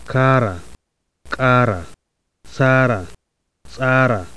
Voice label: hausa, ejektiver; [ˈka:ra ˈkʼa:ra ˈsa:ra ˈsʼa:ra]; 7.6 Andre luftstrømsmekanisker: ejektiver, implosiver og clicks